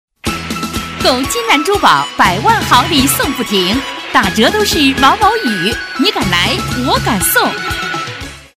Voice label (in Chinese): 女 促销广告 激情喜庆; 金兰珠宝